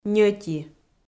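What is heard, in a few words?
"ньӧти", Komi, female; audio dict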